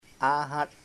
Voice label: tongue, chamacoco